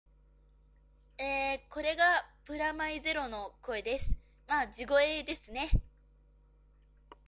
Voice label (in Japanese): onna